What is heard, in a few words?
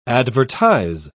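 "advertize", British English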